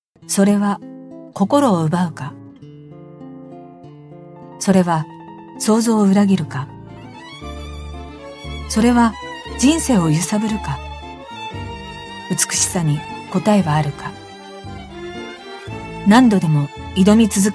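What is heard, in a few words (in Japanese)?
日本語ナレーター; GAIKEI Models & Narrators; ＳＴＶ(札幌テレビ放送)時代のレポーター キャスター体験がしっかりと活かされています。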